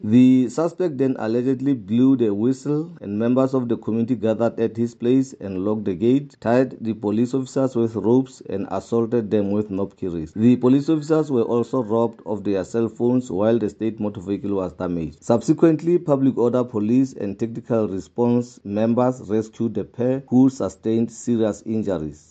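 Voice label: English; Police officers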